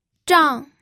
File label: "zhang4", pinyin